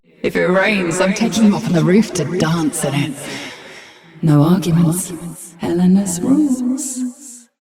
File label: Ambient Barks, en